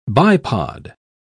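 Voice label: "bipod", British English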